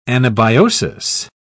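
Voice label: "anabiosis", British English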